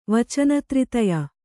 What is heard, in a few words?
"ವಚನತ್ರಿತಯ", kannada